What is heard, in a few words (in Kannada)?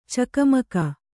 "ಚಕಮಕ", Kannada; cakamaka; noun